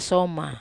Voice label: "ai soma", Wamesa

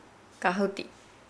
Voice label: Nukuoro; banana

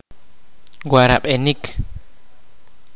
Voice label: Wake, kaqchikel

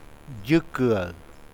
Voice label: uitoto fish pez

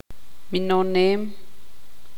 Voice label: tzutujil; empujar

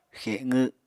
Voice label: "xeqw", Apatani

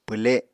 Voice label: "pwle", Apatani

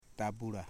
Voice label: "red", Foe

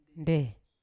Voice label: "nde" to come, Yawa

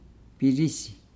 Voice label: "bridge", Nukuoro

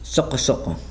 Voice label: "alone", Nukuoro

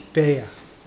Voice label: "bear", Mokilese

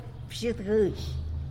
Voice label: "bxied guëzh", tlacolula; estropajo

